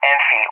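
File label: "Enfield", English; CallSign; DCS; Allied Flight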